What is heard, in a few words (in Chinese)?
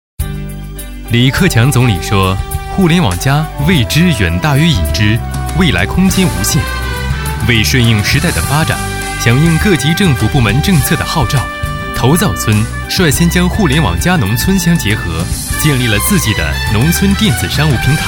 男 政府建设; 盐城市头灶村电商服务中心